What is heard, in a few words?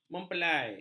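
"mempelai", Malay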